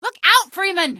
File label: look out, female; npc; Garry's Mod; hl2